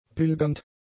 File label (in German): "pilgernd", German; adjectives